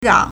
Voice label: "rang3", Chinese